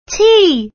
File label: "t", letter; star catcher